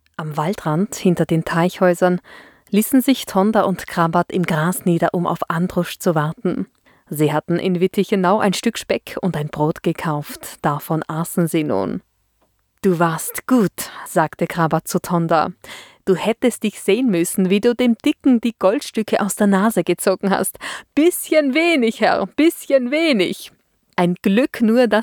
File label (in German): Hoerbuch, stimme